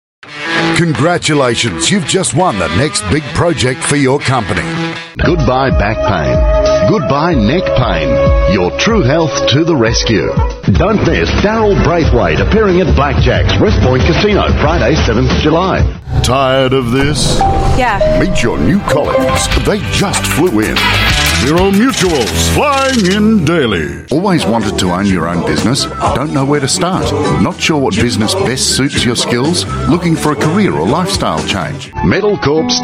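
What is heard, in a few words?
Australian English TV radio commercials voice sample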